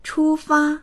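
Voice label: "chu1fa1", Mandarin Chinese; 出发; 出発する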